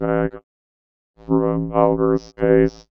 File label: VOICEFX ELCTR 140; Techno2